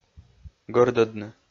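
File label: "гӧрдӧдны", Komi, male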